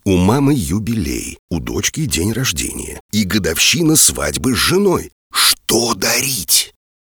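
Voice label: game-style, voice casting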